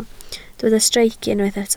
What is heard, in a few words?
"streic", welsh